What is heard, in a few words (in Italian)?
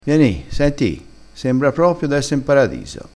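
"i", crespinese dialettale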